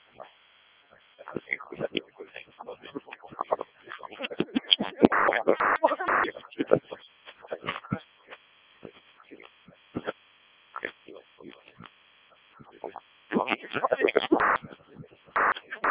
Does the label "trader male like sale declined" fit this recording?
No